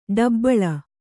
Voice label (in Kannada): "ಡುಬಲಿ", Kannada